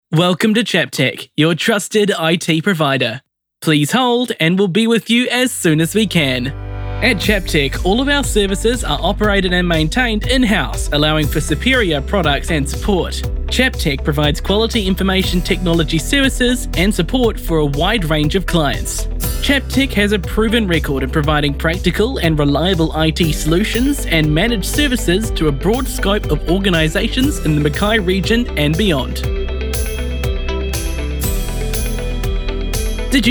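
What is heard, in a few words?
New Zealand phone voice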